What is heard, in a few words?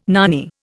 "nanny", Indian